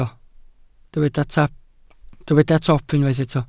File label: "top", Welsh